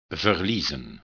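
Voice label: "verliezen", Dutch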